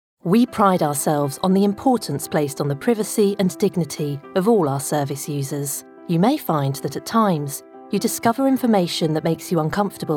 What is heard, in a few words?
E-Learning Showreel; VO Pro Cast